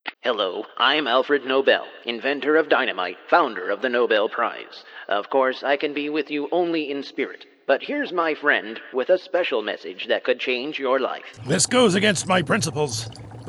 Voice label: character voices